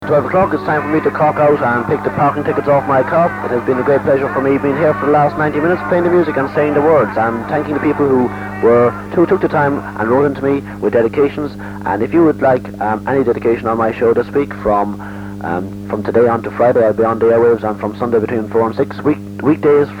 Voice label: local radio airchecks id only; cork city; irish; c90

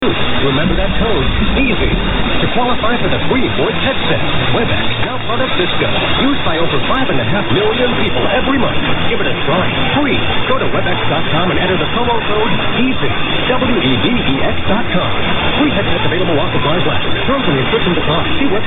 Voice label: advert; webex; wnax

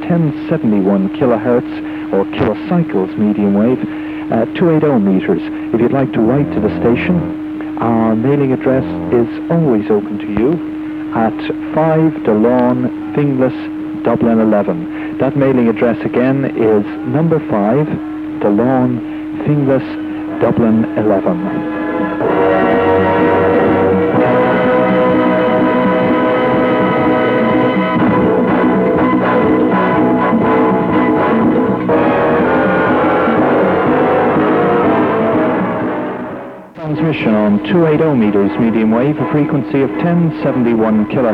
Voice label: icbs 1071am test announcement

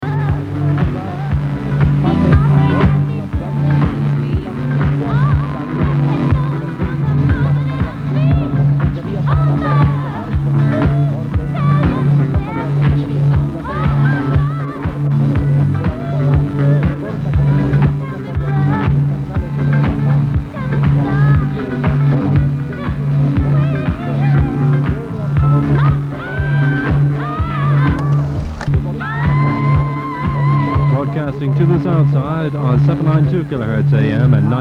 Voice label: nova southside 684 announcement

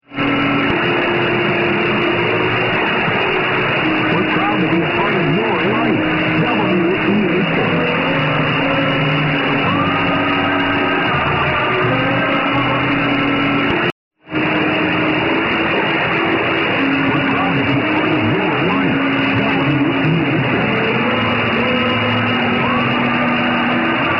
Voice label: voice over

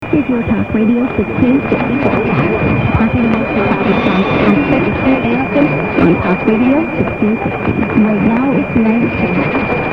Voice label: talk radio id only

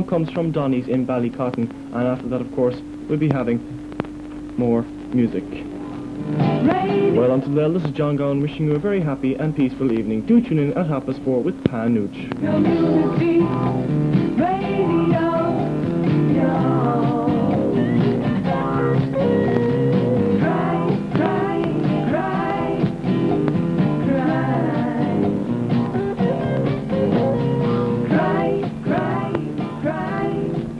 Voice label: community radio close lunchtime 1512khz; youghal; ann as 1485; irish; c90

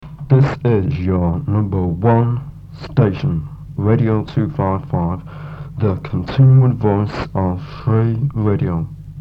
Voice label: station id; radio 255

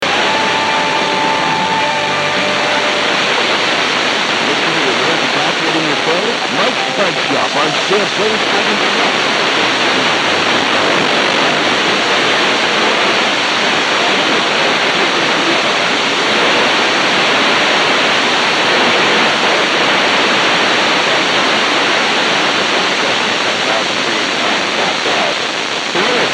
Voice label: canadian tv audio poor; 59750

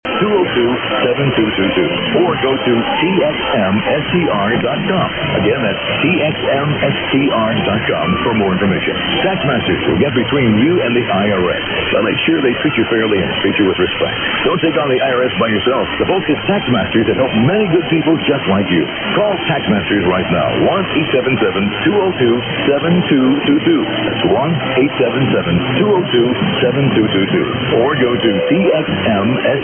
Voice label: 1700, mw dxing; xepe; san diego

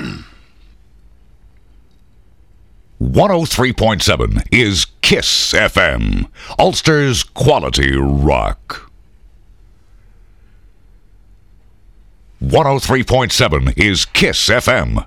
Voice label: KISS FM Voiceover; KISS FM 103.7; Monaghan

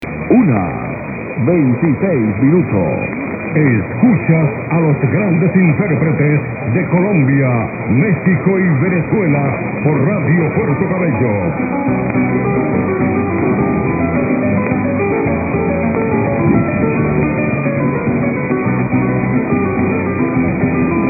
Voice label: radio; radio puerto cabello ven; Radio Puerto Cabello from Venezuala

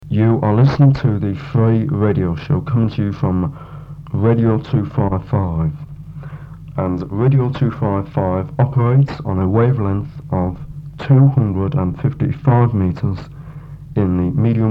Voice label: station id with frequency; radio 255

